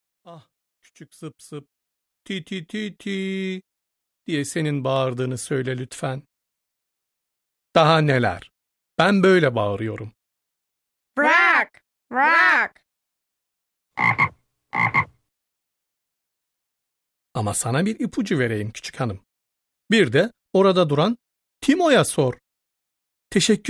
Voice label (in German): tuerkisch; hueber